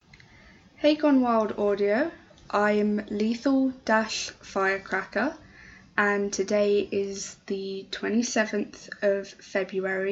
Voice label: F verification; [F]irecracker [GWAverification]